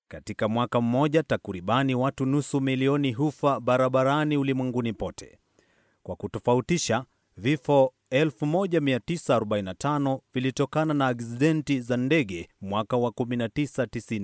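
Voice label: Swahili, male, narration; voice-over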